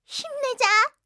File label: ui yell